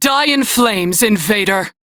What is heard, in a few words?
Crew Gun Kill Fighter, Female; Dialog; en; Warframe; Railjack Crew; New Loka